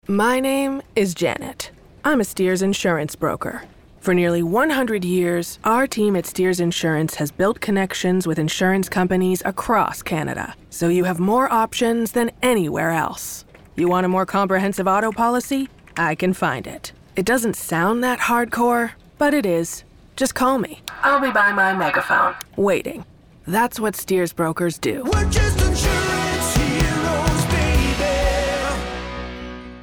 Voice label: RADIO; HARDCORE JANET